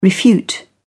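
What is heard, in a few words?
"refute", British English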